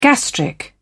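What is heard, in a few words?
"gastric", British English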